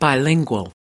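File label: "bilingual", US English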